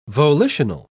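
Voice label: "volitional", British English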